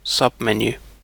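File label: "submenu", British English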